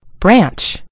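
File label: "branch", American English; gcompris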